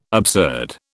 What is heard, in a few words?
"absurd", British